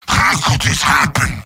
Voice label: heavy jeers; mvm